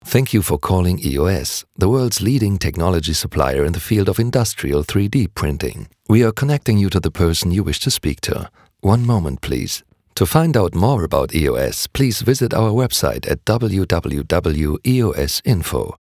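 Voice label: English IVR; EOS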